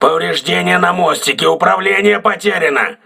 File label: voice message bridge damaged, crew ships russian